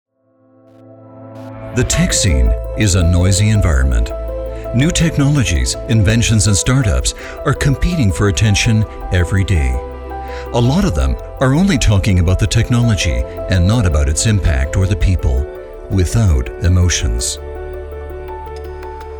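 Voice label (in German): American English Dynamisch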